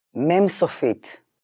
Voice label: "Mem sofeet", Hebrew; hebrew introduction

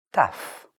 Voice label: "Tough", Hebrew; hebrew introduction

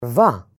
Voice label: "Vuh", Hebrew; hebrew introduction

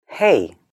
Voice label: "Hey", Hebrew; hebrew introduction

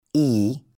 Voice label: Long Ee, Hebrew; hebrew introduction

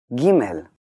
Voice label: "Gimmel", Hebrew; hebrew introduction

